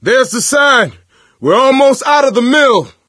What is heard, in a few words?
misc directional, coach, survivor voice